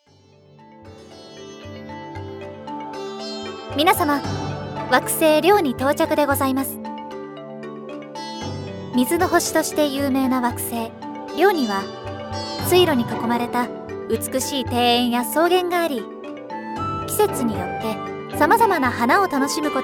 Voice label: announce